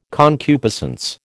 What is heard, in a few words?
"concupiscence", American